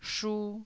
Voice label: "shu1", taiwanese Syllables

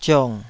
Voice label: "ziong3", Taiwanese

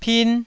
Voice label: "pin1", taiwanese

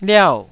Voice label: "liau4", Taiwanese syllable

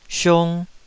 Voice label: "siung2", Taiwanese

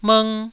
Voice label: "mng1", Taiwanese

